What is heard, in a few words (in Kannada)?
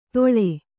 "ತೊಳೆ", Kannada